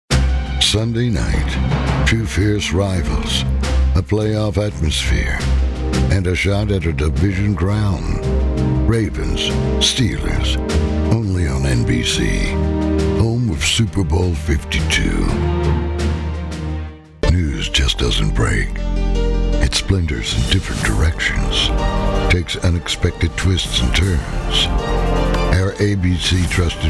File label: promo